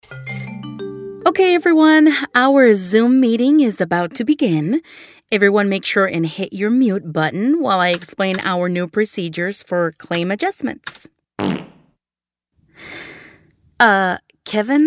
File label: Animation English